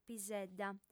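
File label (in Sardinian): "pisedda", female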